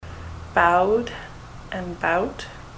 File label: bowed bout, ontario